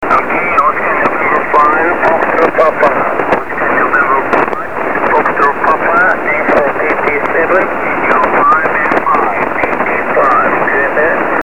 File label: 14 ssb